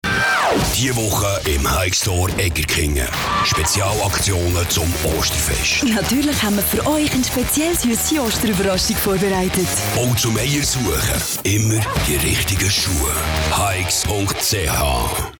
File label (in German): Werbung CH BE